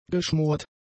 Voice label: "geschmort", German; adjectives